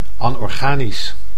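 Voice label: "anorganisch", Dutch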